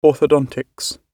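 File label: "orthodontics" British English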